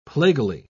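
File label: "plaguily", British English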